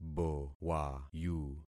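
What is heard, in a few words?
"bowayu", concatenated syllables; Affixing experiment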